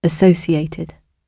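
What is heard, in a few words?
"associated", British English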